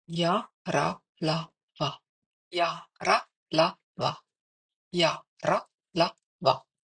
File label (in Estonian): ya ra la va, sanskriti; neli poolhäälikut